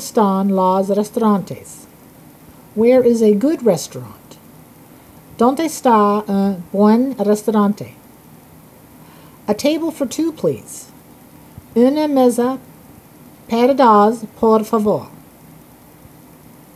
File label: Spanish travel phrases